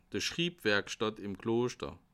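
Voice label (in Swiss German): "schribwerokstatt", Bas Rhin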